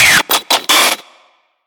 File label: Armed Guard Shout Warning